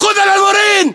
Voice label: inform kill firm infantry, battle chatter, english